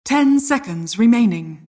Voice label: announcer count pick 10